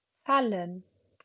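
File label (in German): "fallen", German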